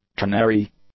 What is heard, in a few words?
"ternary", English; adjectives